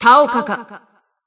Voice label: System Voice; BBCP; Bullet